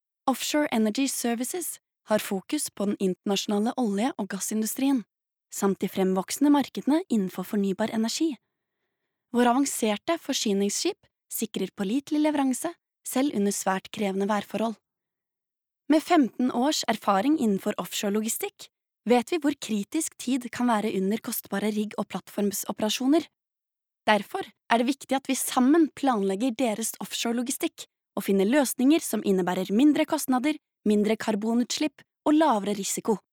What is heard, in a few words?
no informativ, female, norwegian